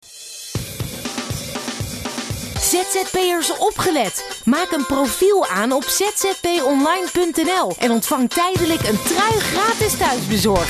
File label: Radiospot; ZZP Online